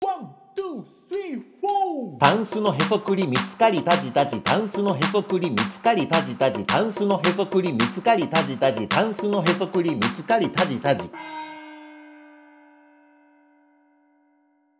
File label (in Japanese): 早口言葉リズム（中級編）